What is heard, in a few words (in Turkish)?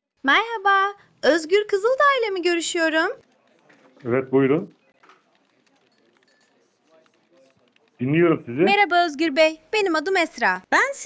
Yapay Zeka Arama, Görüşme Kaydı; AI: Merhaba.; Evet buyurun; dinliyorum sizi